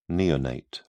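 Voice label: "neonate", British English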